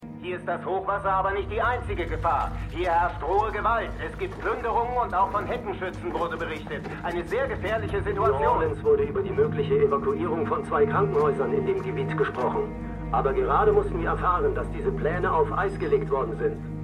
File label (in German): Reporterstimme; MEMORIAL HOSPITAL - DIE TAGE NACH HURRIKAN KATRINA (DF 2022); Five Days at Memorial